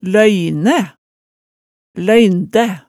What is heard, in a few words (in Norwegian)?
"løynæ", hemsedalsmaalet tale